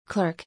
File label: "clerk" (US); Salli; American English